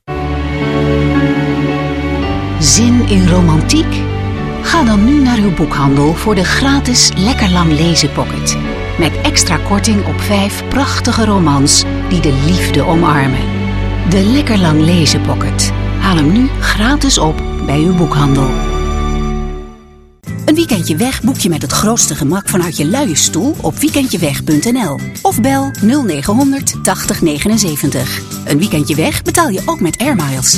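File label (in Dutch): dutch female commercial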